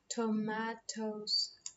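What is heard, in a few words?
"tomatoes", British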